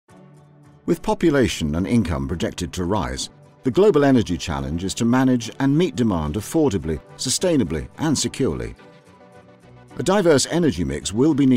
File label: documentary showreel